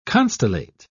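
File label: "constellate", English, British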